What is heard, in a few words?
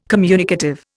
"communicative", Indian